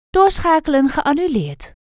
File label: Call Forward Cancelled, dutch